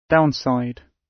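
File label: "downside", British English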